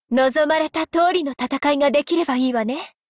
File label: warcry